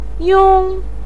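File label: "yong1" Chinese syllable, pinyin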